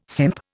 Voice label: "simp", Indian